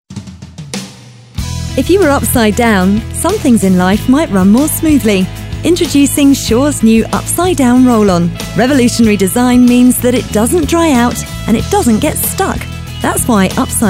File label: Commercial